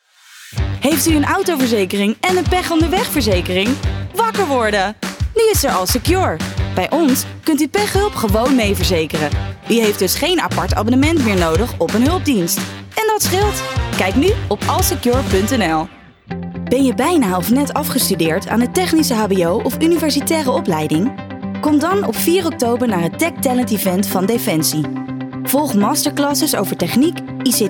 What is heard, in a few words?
VO Allround